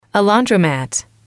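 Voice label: "laundromat", English, female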